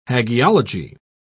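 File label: "hagiology", British English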